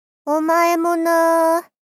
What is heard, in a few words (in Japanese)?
おまえもな～ 少女 中音域